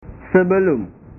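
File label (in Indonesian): "sebelum", Indonesian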